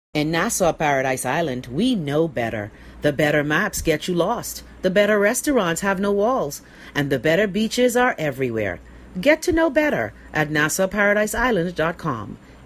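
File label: English Bahama, audition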